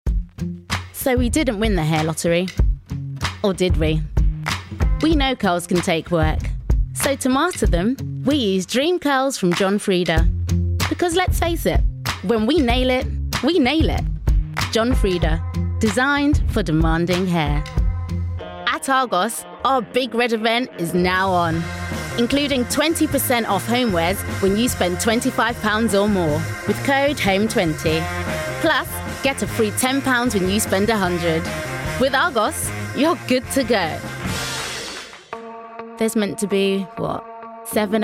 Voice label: female English commercial compilation

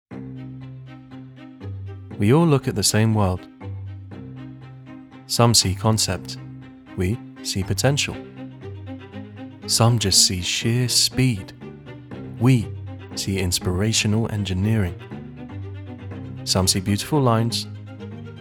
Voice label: male arabic and english english commercial; audi

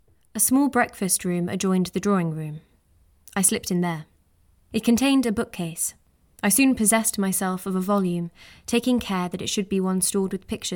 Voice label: female english english rp accent narration; english southern accents

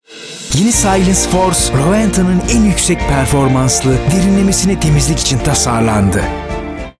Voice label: male turkish commercial; rowenta

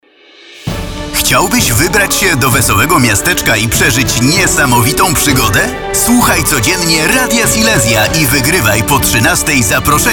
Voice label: male polish radio promo

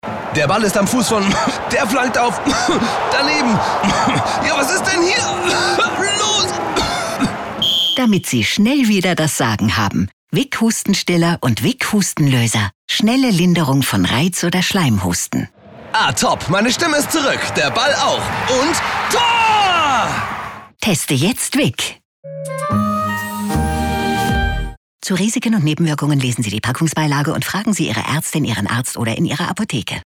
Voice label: male, German, live national radio commercial spot; wick

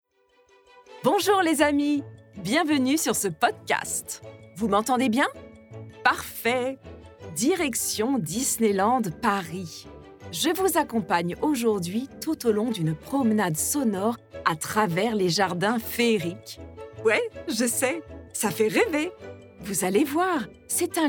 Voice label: female French commercial

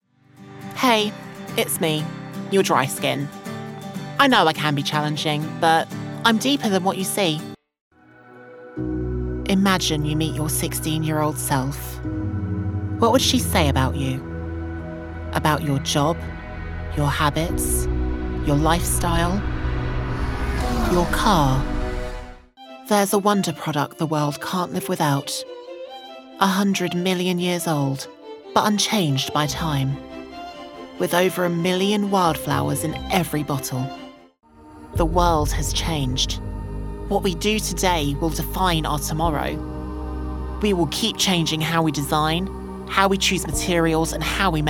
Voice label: transgender female english commercial compilation; Devine Voices

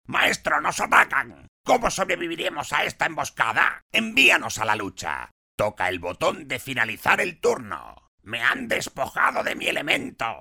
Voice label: male spanish castilian video game characters

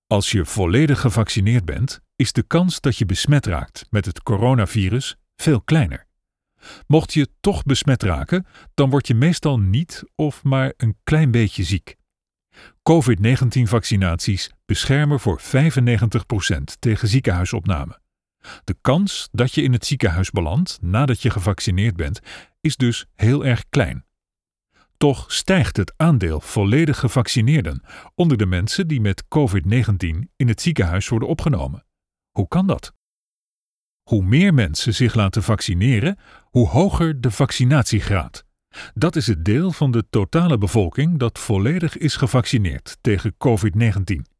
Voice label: male dutch medical explainer; Devine Voices

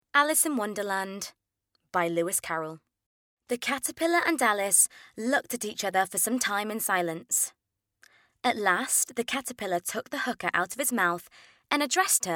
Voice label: female English RP audiobook; english southern accents

